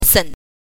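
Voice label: "sen", Mandarin Chinese syllable, neutral tone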